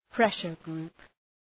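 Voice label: "pressure group", English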